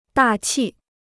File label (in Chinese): "大气", Chinese; dà qì; atmosphere (surrounding the earth); imposing; impressive; stylish